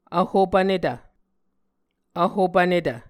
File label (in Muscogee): "vhopvnetv", Muscogee